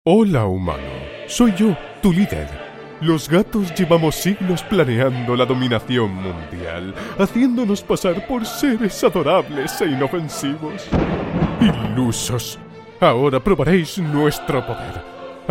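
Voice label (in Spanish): doblaje España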